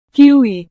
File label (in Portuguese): "kiwi", portugues